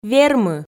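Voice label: "вермы", Komi, female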